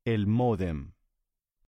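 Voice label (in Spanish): "el modem", Spanish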